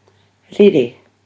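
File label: "rere", Māori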